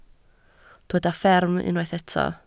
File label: "fferm", Welsh